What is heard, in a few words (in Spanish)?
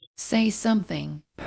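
Voice Acting, Spanish, female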